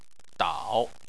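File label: "dao" third tone, Chinese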